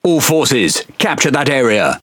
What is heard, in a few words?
Pirate Speech Quick Commands Enemy Point; World Of Warships